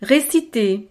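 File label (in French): "reciter", French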